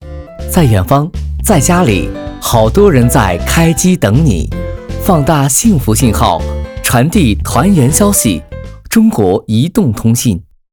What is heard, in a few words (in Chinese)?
广告青春 移动通信